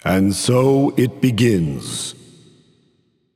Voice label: Announcer Match Start